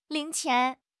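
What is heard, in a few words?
"ling2 qian2", Chinese; HSK4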